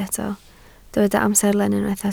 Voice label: "amserlen", Welsh